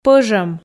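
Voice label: "пӧжӧм", Komi, female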